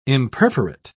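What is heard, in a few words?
"imperforate", British English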